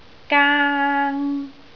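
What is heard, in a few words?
"gaang1", Cantonese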